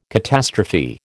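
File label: "catastrophe", American English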